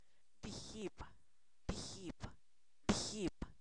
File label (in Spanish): Zapoteco, san miguel albarradas; primero; numeros